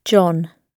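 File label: "john", British English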